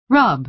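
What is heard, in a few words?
"rub", American English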